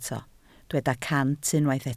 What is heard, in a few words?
"cant", Welsh